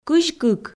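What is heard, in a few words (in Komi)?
"кызь кык", Komi, female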